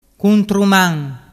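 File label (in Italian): "cuntruman", Vernazzese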